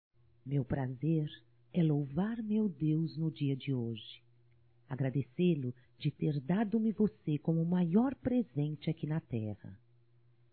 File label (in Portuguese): NATAL ROMANTICO, Telemensagens, fem; Telemensagens 2017; Natal & Ano Novo